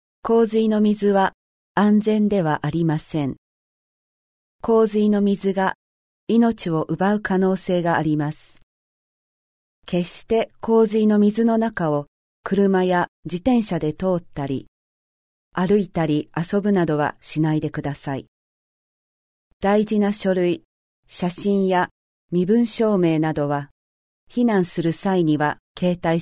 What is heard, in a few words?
japanese; floodsafe